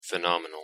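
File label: "phenomenal", English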